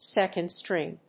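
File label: "second string", English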